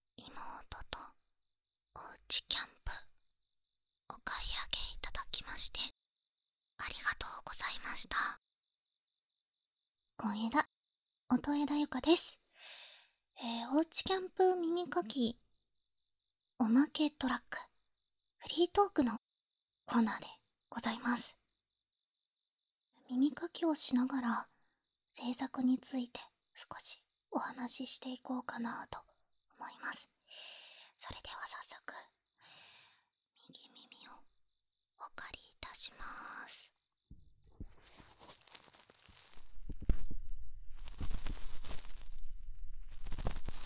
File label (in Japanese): フリートーク【綿棒耳かき】; 義妹とおうちキャンプ